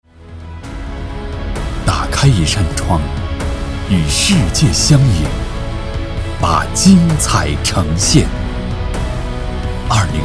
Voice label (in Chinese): 大气浑厚专题样音